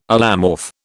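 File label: "allomorph", British English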